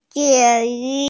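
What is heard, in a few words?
"jīn yú", Mandarin Chinese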